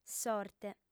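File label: "sorte", female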